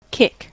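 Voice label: "kick", American English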